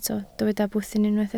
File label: "bwthyn", Welsh